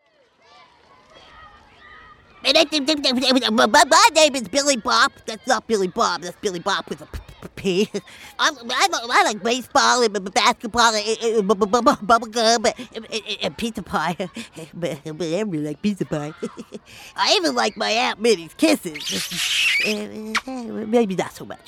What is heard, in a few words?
voice over sequence